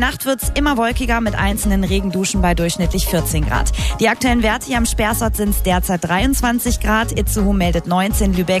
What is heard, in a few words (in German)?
moderation wetter verkehr radio, hamburg